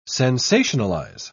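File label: "sensationalise", British English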